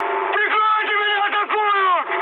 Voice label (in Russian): aircraft damaged, mood high, speech ru; War Thunder